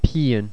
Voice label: "pian", ireland language pronounciation; Monophtongs

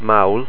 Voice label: "mall", ireland language pronounciation; Monophtongs

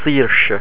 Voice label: "saiorse", ireland language pronounciation; Monophtongs

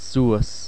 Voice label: "suas", ireland language pronounciation; Monophtongs

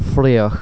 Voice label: "fraoch", ireland language pronounciation; Monophtongs

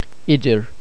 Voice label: "idir", ireland language pronounciation; Monophtongs

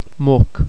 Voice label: "muc", ireland language pronounciation; Monophtongs

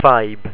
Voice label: "fadhb", ireland language pronounciation; aigh-, -eidh-, -adh-, -agh

